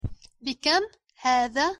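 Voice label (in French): combien, Arabic pronunciation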